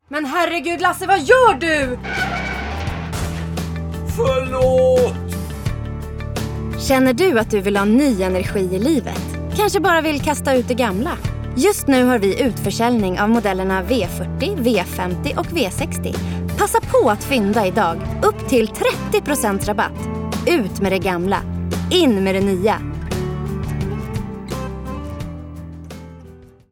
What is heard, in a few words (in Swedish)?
reklam swe; svenska rostartister